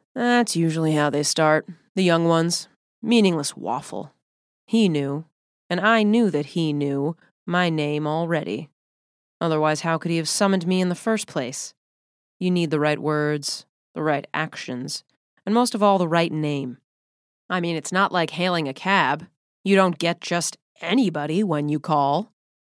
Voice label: Voiceover, Audio Books; new york